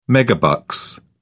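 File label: "megabucks", English, British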